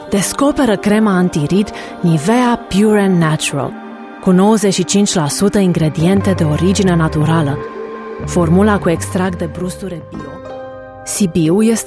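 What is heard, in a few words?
Romanian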